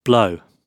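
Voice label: "blow", British English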